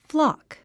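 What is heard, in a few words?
"flock", English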